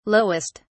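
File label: "lowest", British English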